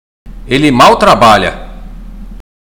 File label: Portuguese Listening; Portuguese Test